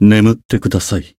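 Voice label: finish, Japanese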